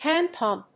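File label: "hand pump", English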